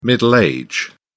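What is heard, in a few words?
"middle age", British English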